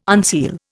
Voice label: "unseal", Indian